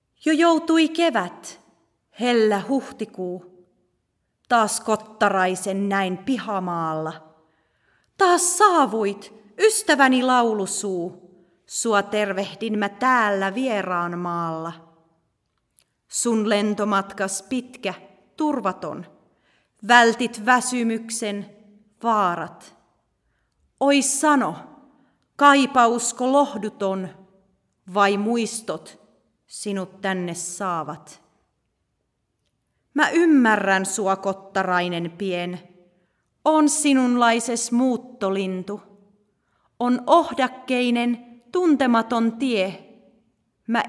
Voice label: pronunciation Guide; Kottarrainen